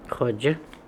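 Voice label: "Hodja", pronunciations; Fourth Way; Beelzebub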